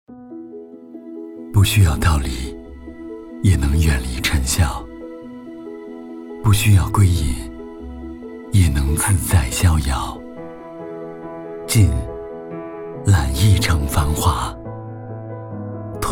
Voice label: 男 中文 广告类 品质走心类

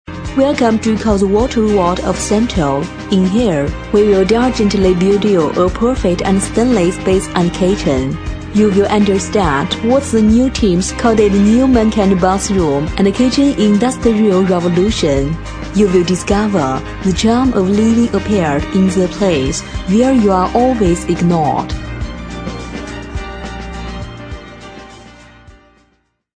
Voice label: 女 英文 水世界 磁性动感; SINTO水世界

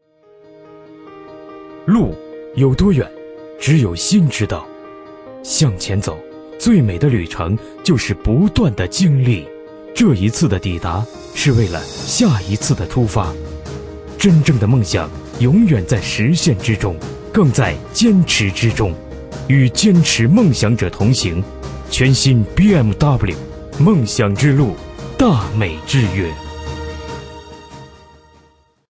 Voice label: 男66号汽车硬广 公益广告

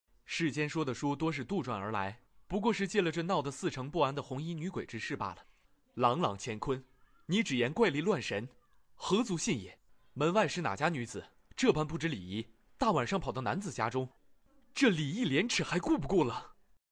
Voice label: 男 角色模仿 书生

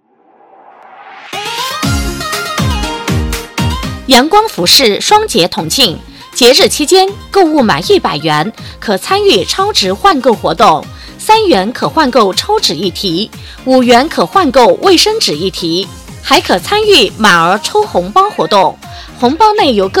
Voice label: 女 促销 服饰; 阳光服饰

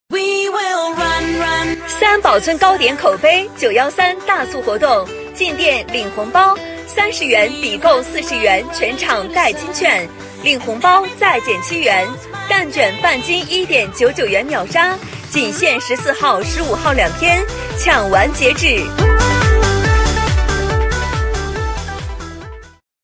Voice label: 女 促销 生活广场; 佳惠生活广场

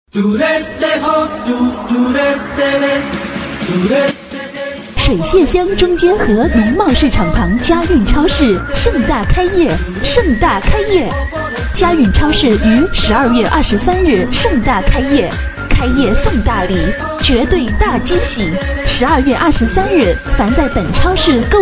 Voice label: 女 促销 超市活动

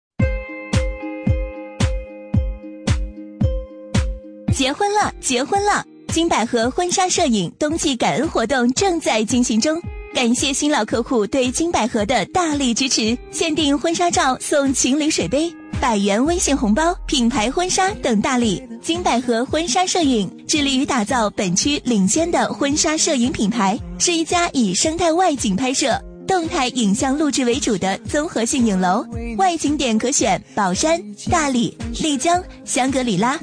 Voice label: 女 广告 化妆品

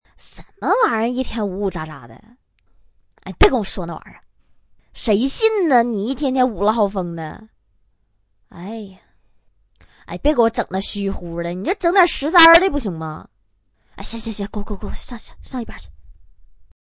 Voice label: 女 方言 东北方言

